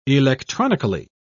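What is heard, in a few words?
"electronically", British English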